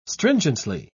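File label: "stringently", British English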